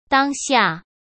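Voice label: "当下" Mandarin Chinese; chinese flashcards; hsk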